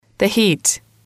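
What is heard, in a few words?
"heat", English, female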